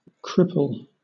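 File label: "cripple", British English; pronunciations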